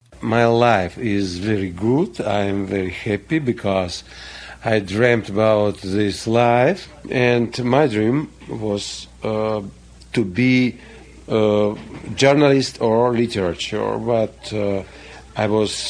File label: Russian White Male Age 50; Slavic